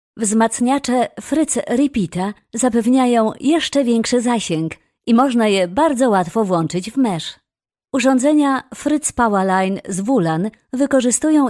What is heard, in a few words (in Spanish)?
Femenino